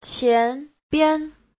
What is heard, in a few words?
"qian2bian5", Chinese; Giáo trình Hán ngữ